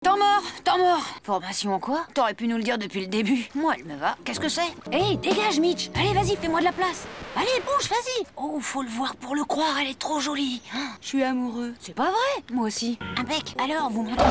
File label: Character kid, FRENCH FEMALE